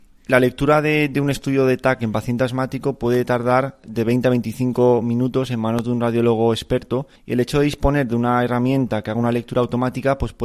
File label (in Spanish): neumologo; hospital Sant Joan d'Alacant; Hospital Universitario Sant Joan d’Alacant